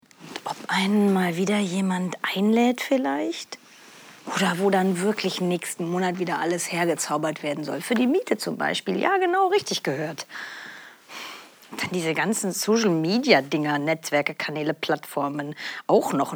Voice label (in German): Hoerspiel; Hostel